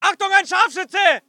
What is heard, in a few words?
voice ger infantry; Red Orchestra 41-45